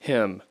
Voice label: "hymn", English; Y (vowel sound); Speech Pro